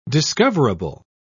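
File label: "discoverable", English, British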